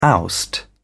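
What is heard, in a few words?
"oust", British English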